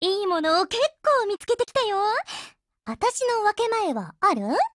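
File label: OPERATION OVER Japanese, Voice; GF Alarm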